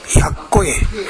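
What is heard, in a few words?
"yakkoe", Minamiyamagata dialect Japanese; やわらかい。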